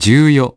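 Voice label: "ten four", English; iOS 4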